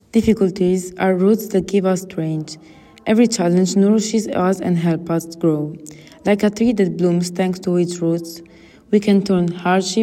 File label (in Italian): audio in inglese